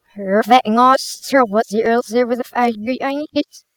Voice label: reverse message

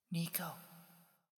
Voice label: spooky voice

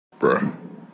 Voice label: "bruh" deep voice

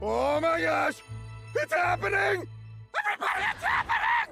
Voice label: narrator its happening; kaguya-sama

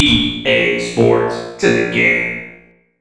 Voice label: robot; ea sports

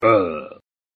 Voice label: ugghhh talking

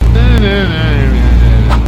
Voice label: murmur slowed; roblox

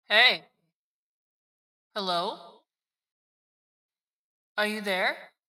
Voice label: spooky hello

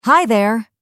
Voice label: "hi there", female voice

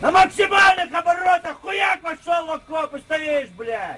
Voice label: angry russian tank instructor

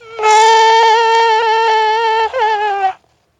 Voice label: noooooo long high pitched

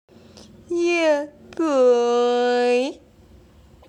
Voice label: yeah boy real voice

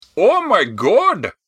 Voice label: omg arab

